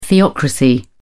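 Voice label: "theocracy", British English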